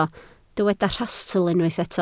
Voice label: "rhastl", Welsh